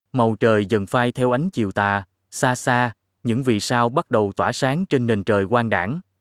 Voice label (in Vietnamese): vi-VN; iOS 26